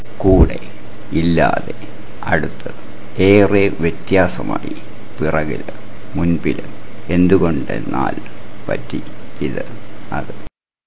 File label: malayalam words